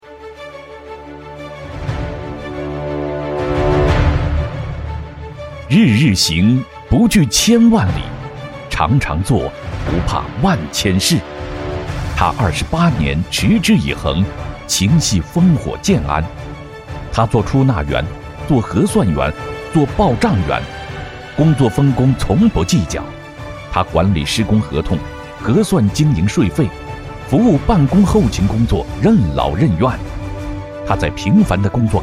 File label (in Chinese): 男 宣传片 人物颁奖